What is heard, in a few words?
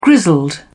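"grizzled", British English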